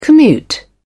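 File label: "commute", British English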